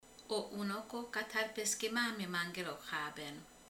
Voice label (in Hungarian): Az unoka (fiú) a nagymamájától kér ételt, romani